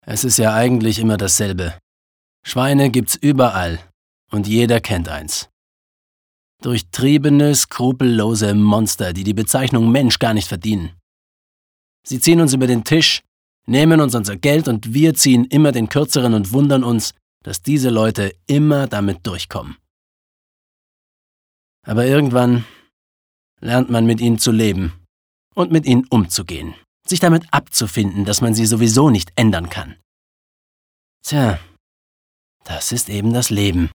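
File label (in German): acting, Cool; Schweine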